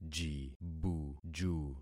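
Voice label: "jibuju", concatenated syllables; Affixing experiment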